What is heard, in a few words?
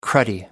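"cruddy", British English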